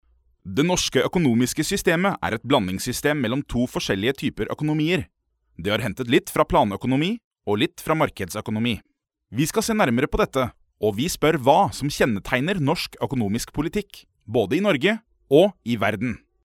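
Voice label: Norwegian, male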